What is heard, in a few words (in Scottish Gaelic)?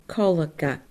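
"colgag", Scottish Gaelic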